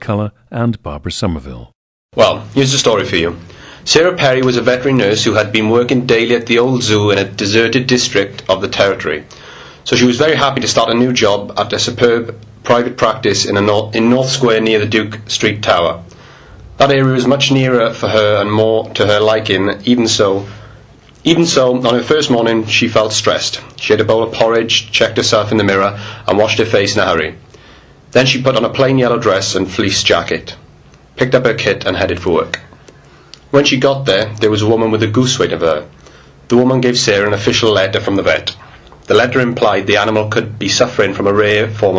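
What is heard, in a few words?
wales, International Dialects of English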